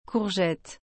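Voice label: "courgette", French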